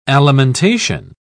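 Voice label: "alimentation", British English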